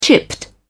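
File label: "chipped", English, British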